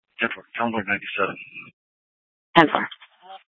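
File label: police dispatch, Scottsdale PD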